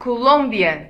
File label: "colombia", pt; gcompris; geography